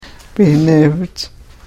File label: "pihneefich", karuk